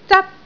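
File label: "zat1", Cantonese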